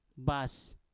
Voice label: "bas", Mpur; buka

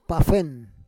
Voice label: "pafen", Roon

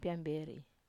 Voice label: "piamber(i)", Wamesa

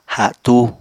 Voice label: "hatú", apatani

